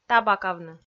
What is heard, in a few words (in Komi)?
"табакавны", Komi